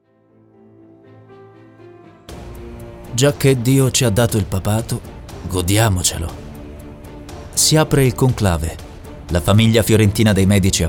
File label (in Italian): audioguida